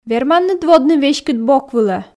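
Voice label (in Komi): верманныд водны веськыд бок вылӧ, Komi, female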